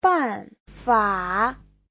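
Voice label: "bànfǎ", Mandarin Chinese; 办法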